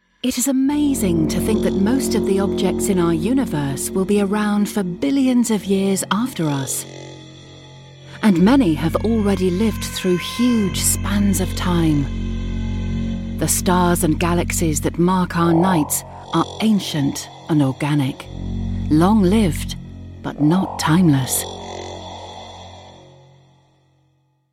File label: documentary